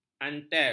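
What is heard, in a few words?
"mantel", Malay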